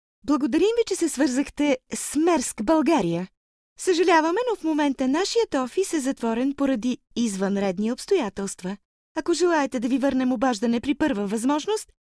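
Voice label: bulgarian female ivr; voice demos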